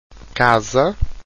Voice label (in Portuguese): "casa", Portuguese